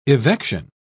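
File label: "evection", British English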